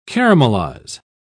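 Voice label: "caramelise", English, British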